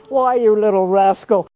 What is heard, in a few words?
upset; ethel; fertig classic games